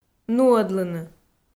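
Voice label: "нуӧдлыны", Komi, female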